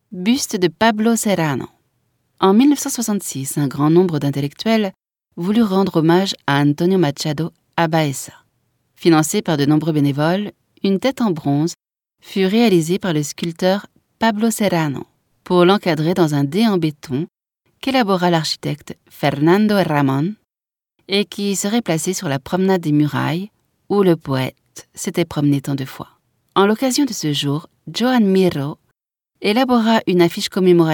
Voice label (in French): audioguia, frances; audioguia baeza